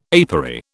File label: "apery", British